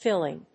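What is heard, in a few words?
"filling", English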